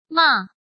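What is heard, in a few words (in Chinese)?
"骂", Chinese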